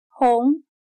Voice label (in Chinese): "虹", chino